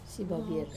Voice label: "savavir", arrogant, Wamesa